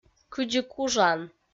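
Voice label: "кыдзи кужан" Komi, female; kpv audio dict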